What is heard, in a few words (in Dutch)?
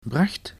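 "bracht", Dutch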